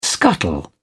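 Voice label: "scuttle", English, British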